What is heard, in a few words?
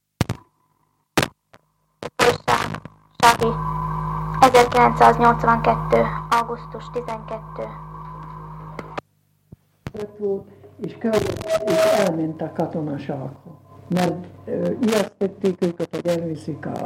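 dialect collections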